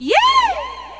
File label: rank up girl; Nintendo